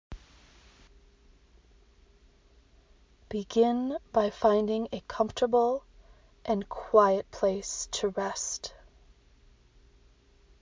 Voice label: Morning Meditation